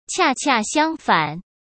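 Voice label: "恰恰相反", Mandarin Chinese; hsk; chinese-flashcards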